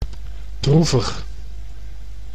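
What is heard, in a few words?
"droevig", Dutch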